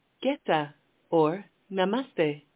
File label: Nepali, hello